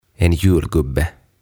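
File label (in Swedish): "en julgubbe", Swedish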